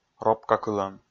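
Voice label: "ропкакылӧм", Komi, male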